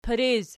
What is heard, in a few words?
"piriz", Archi